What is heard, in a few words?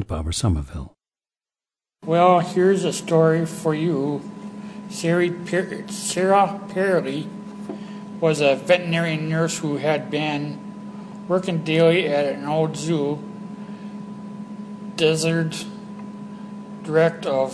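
minnesota, International Dialects of English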